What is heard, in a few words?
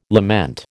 "lament", American